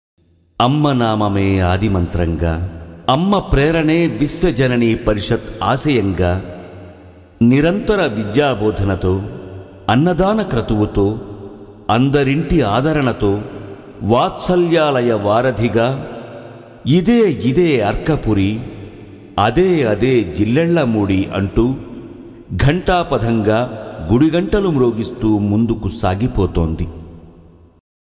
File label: Commentary